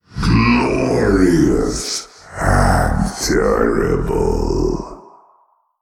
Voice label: Male Old God Well Played